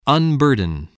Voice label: "unburden", English spelling voice